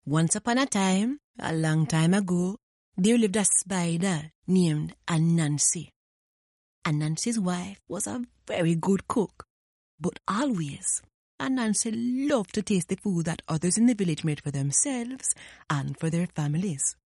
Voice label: English Caribbean F Narration, accent of the caribbean